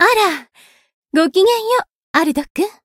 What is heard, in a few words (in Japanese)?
Voice story jp; sherinu